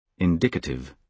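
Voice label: "indicative", British English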